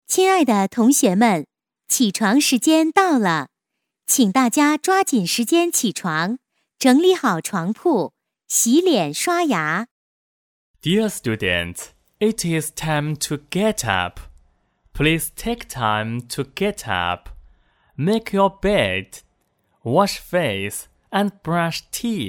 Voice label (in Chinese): 女53 广播 双语学校校园广播, 国语女声配音; 省台女配